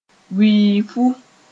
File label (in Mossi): "wiifu", Moore